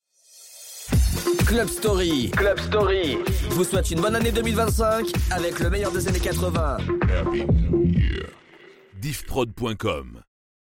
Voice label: Jingle Bonne annee; Club Story

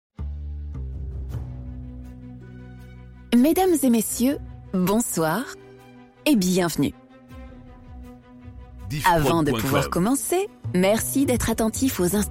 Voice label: Pre Intro Spectacle d'Illusions; Zack et Stan

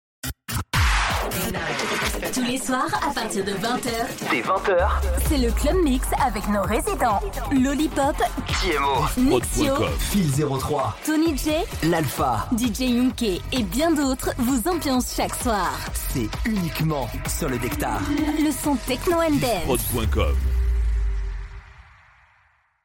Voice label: Promo Club Mix; Le Dhectar

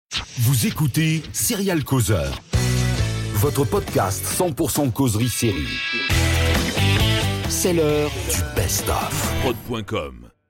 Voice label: Jingle Best Of Mix; Serial Causeurs

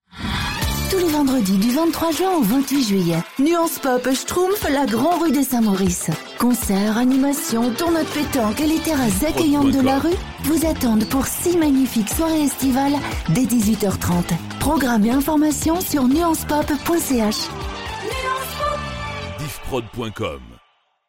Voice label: Spot Evenement Voix, publicite; Nuances Pop 22

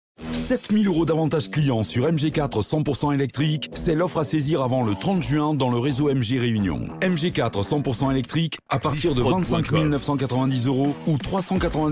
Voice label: Spot, publicite; MG; MG4 Electrique

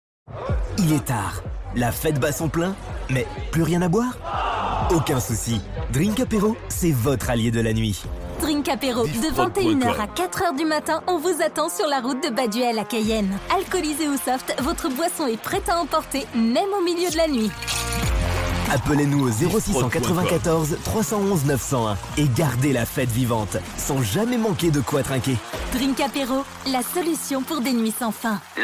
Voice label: Spot, publicite; Mo News; Drink Apero

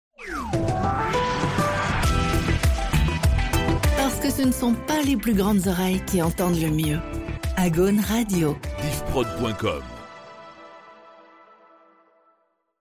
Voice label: Radio Jingle Ce ne sont pas les plus grandes oreilles; Agaune

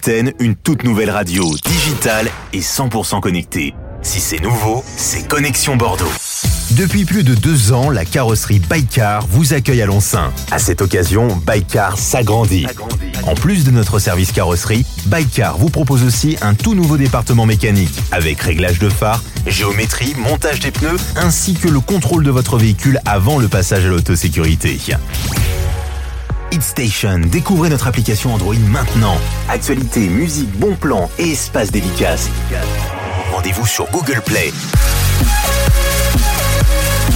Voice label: VO Voix H

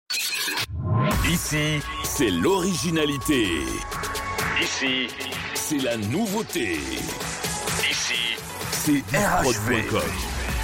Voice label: Jingle Originalite Nouveaute

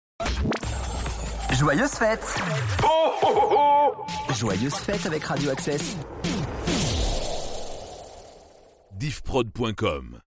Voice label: Radio Jingle Joyeuses Fetes; Radio Access

